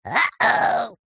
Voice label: cartoon voice male says uh ohh

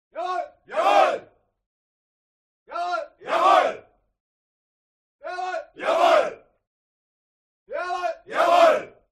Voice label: HUMAN CROWD Military Shout German "Yessir"; smartsound

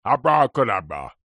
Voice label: human old man man says abracadabra; zapsplat

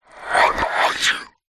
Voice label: horror voice ghostly says im behind you

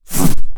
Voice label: Alien Scientist; little robot sound factory

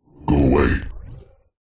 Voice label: horror demonic voice male deep says go away; zapsplat

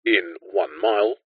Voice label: technology sat nav voice male uk says in one mile

